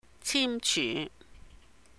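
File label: "Chim Chyuh", Cantonese; sign; 簽署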